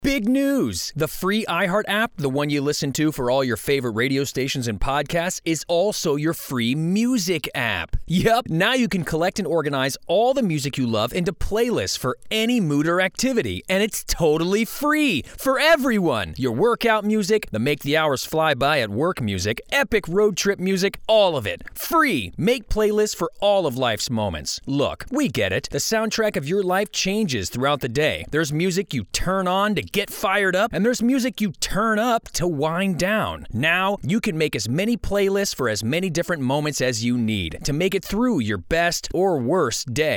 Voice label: Commercial Sample

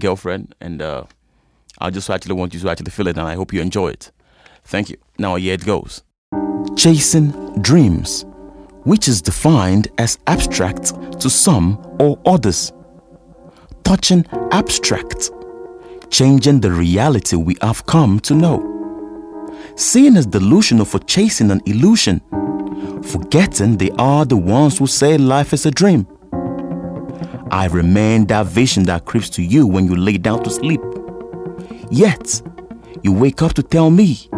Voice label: Voice Over

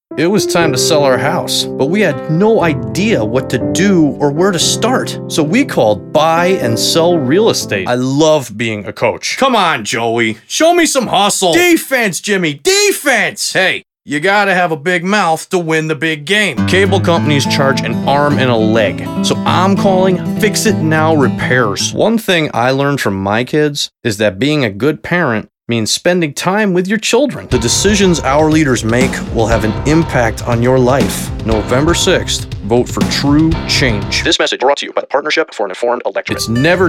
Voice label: commercial

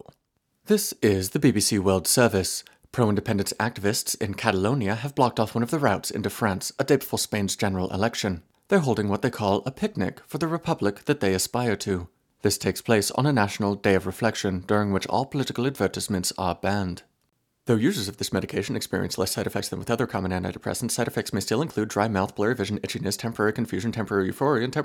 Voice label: commercial voice over

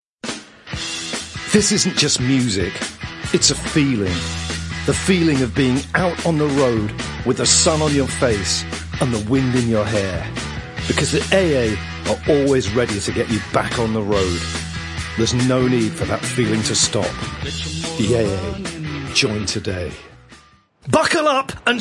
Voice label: COMMERCIALS

